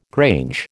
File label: "grange", American